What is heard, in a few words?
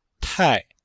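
"tai4", Chinese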